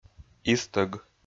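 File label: "истӧг", Komi, male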